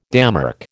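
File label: "démarche", American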